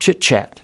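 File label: "chitchat", US English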